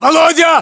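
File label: "volodya", battlechatter, Russian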